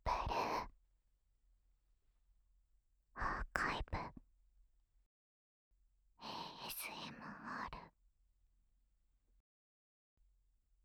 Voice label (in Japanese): タイトルコール